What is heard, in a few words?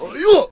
Monk Attack Vocals